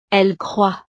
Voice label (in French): "elles croient", frances